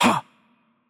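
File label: Greek attack light voice; bloom minigame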